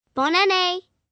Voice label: French New Year, Occasions, 1 girl, 9 yrs., French; Holiday Sound Effects